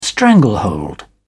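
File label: "stranglehold", British English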